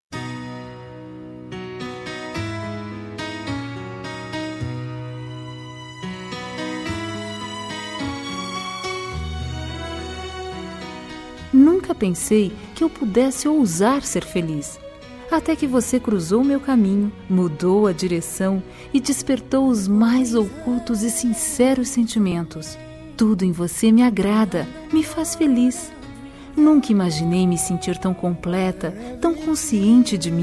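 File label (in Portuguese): Evangélica Declaracao de Amor, female, telemensagens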